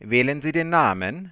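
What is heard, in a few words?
choose a name, German, Voice Sounds; Samsung SGH-i310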